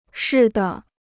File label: "似的", Chinese